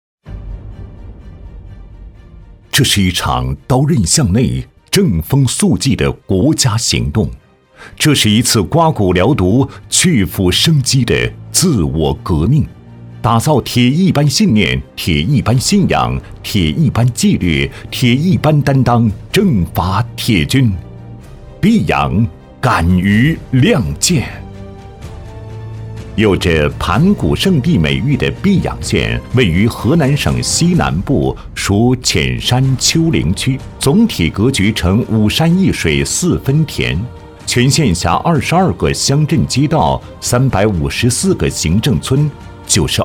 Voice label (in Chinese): 男 大气 党建